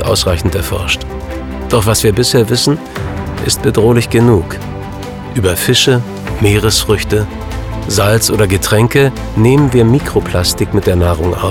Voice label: Plastik essen, Commercial (Werbung), German

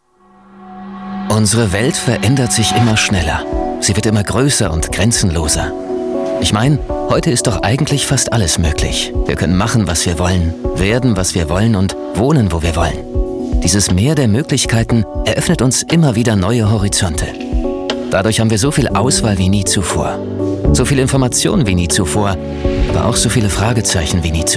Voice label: Werbung Emotional; LOFT VOICES

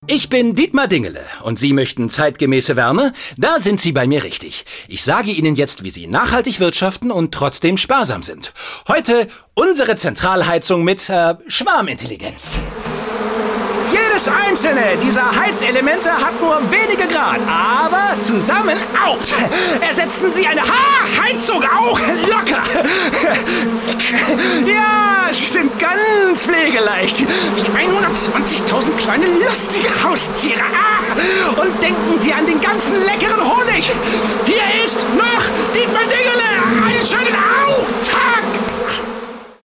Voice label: Werbung Spielszene

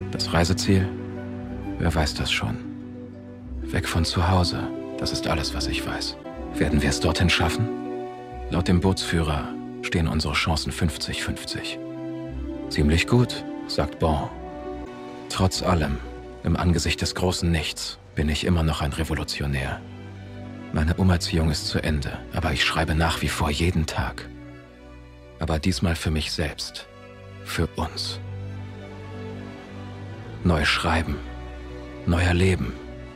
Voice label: Synchron Erzaehler; Erzähler; Tale (Erzählung); LOFT VOICES

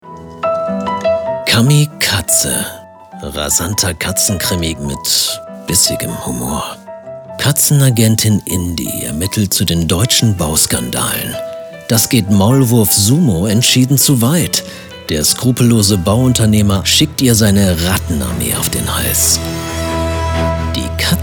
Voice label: Buchtrailer; Kamikatze; LOFT VOICES

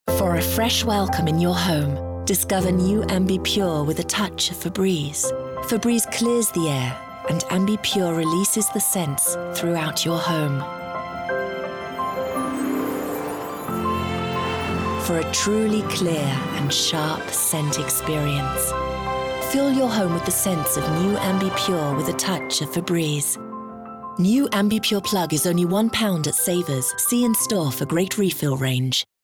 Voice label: uk englisch, speaker

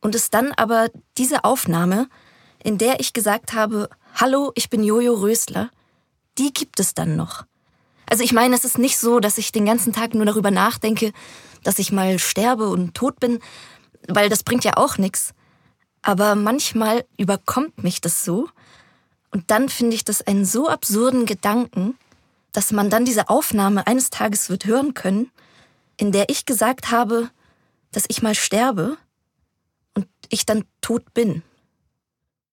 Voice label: Vorstellung natuerliche Stimme

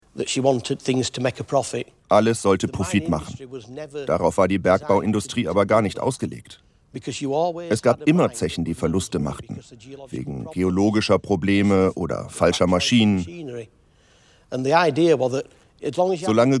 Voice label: over voice Gewerkschafter

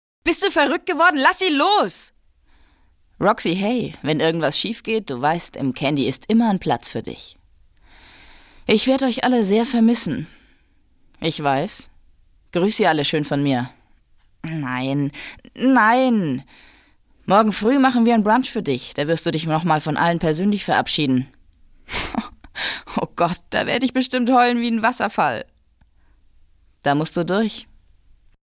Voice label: synchron

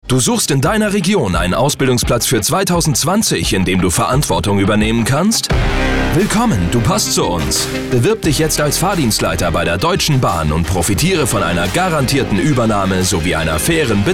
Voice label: Recruitment Werbung; DB Regio; LOFT VOICES

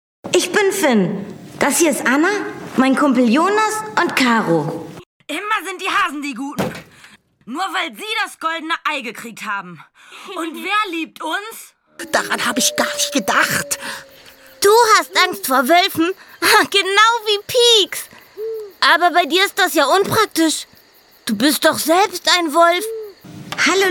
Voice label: hoerspiel; LOFT VOICES

